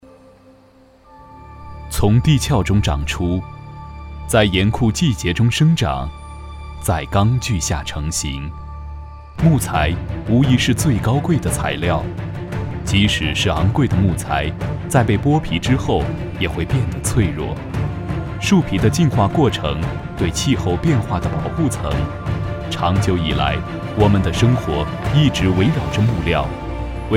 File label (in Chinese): 男, 品质宣传片; Teknos